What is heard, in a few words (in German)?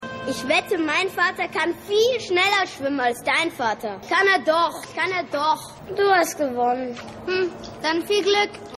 Junge